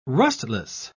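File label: "rustless", British English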